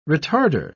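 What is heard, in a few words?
"retarder", English, British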